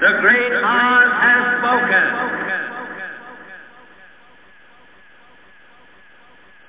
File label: great oz echo